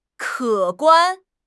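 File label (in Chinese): "可观", Chinese; HSK6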